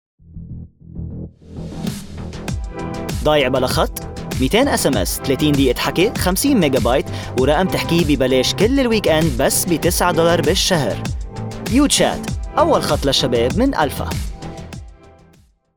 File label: male, Arabic and English USA, Lebanese Arabic commercial